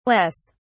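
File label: "wes", British English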